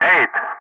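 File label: "8", English, Wingman; Ka-50; Digits; DCS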